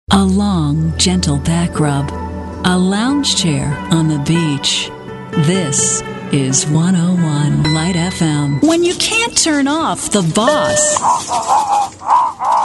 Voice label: Imaging